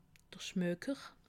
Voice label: "schmoker", alsace

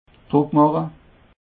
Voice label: "druckmache", alsace

